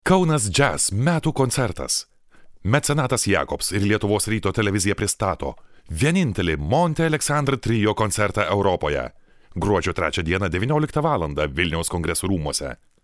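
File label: lithuanian male commercial; voice demos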